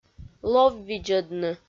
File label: "лов видзӧдны", Komi